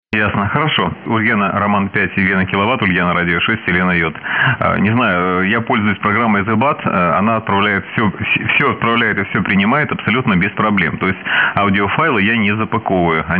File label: 3.6kHz